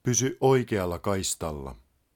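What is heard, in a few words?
Lane Right, Finnish, male; Opas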